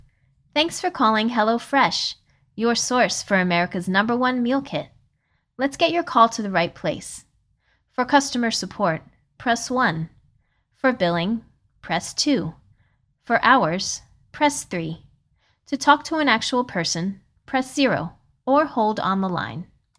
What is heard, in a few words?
IVR Greeting